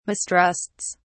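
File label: "mistrusts", British English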